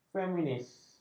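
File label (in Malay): "feminis", Malay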